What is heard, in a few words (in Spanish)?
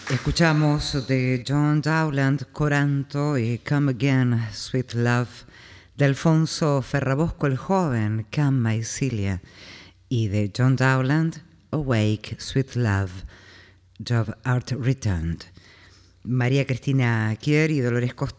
locutora